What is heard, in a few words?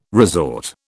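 "resort", British English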